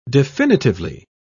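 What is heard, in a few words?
"definitively", British English, dictionary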